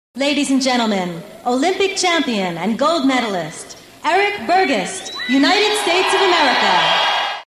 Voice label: USA; olympics